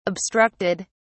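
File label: "obstructed", British English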